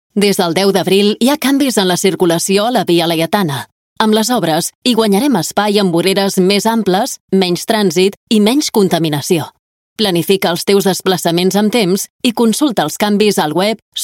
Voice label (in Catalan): catala falca; aj barcelona